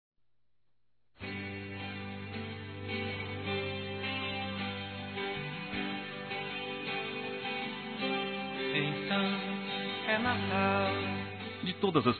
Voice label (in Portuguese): NATAL P AMIGO (A) M; Telemensagens; Natal e Ano novo